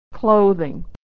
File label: "clothing", American English; Consonant Sound Pair; Voiced /ð; The Emperor's New Clothes